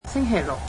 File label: "Saturday", Cantonese